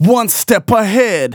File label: Phrase Male Rap; Vengeance Samples